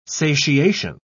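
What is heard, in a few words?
"satiation", British English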